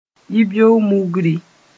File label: "yibeo-mugri", mos-en; early in the morning